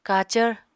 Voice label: "kacvr", Apatani